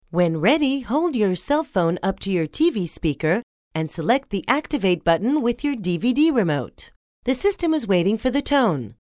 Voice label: Eng; קריינות